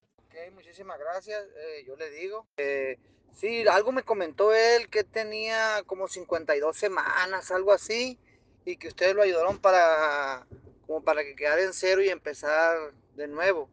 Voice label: whatsapp ptt